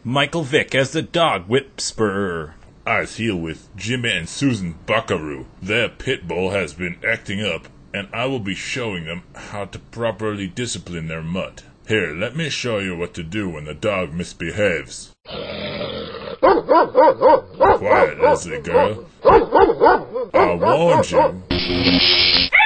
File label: Commercial Animal Whips; fake commercials